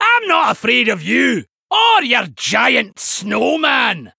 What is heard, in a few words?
Male Dwarf; Vanndar; Emote Response